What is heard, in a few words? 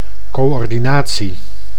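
"coordinatie", Dutch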